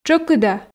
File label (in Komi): "тшӧкыда", Komi